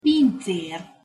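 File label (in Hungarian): "pincer", Hungarian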